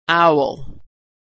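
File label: "owl", American English; vowel aw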